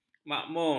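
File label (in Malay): "makmur", Malay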